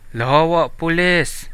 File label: police, central dusun